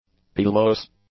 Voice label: "pilose", english; adjectives